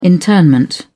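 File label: "internment", British English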